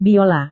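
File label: "biola", Indonesian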